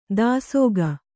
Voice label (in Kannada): "ದಾಸೋಗ", Kannada